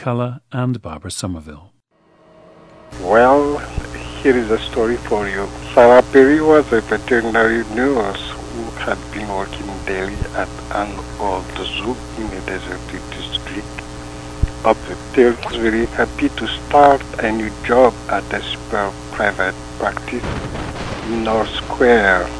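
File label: madagascar, International Dialects of English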